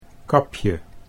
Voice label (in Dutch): "kapje", Dutch